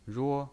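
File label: "ruo", Mandarin Chinese pinyin voice, first tone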